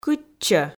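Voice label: "кытчӧ", Komi, female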